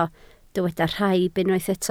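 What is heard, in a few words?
"rhaib" Welsh, spoken word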